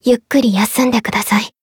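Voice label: win, Japanese; maturika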